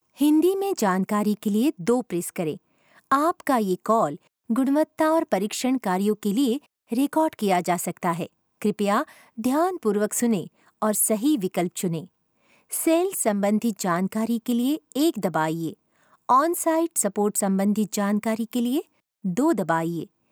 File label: Hindi IVR style slow pace